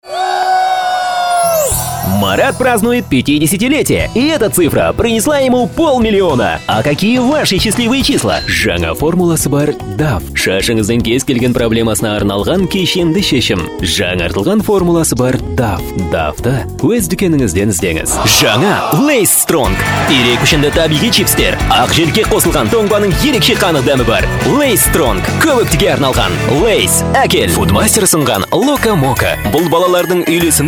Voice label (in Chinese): 哈萨克斯坦语男